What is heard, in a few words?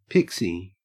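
"pixie", Australian English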